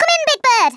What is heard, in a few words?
orders, Scouser